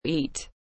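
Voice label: "Eat", English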